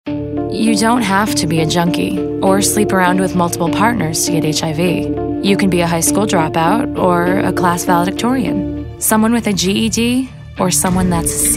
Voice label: real people urban